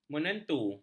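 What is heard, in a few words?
"menentu", Malay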